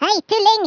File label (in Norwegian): "oi nutter", Norwegian; Speech; 11khz